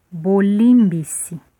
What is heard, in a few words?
sorry, Lingala